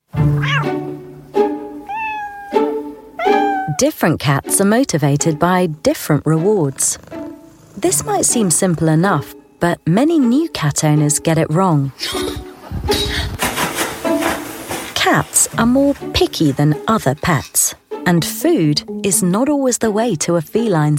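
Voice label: documentary, Clear Bright British Voiceover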